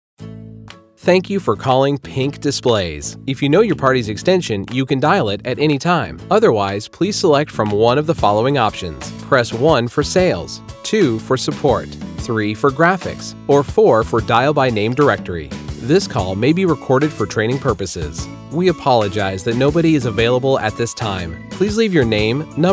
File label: On Hold